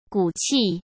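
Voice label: "骨气", Mandarin Chinese; hsk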